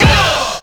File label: "uh" scratch; ooh ahh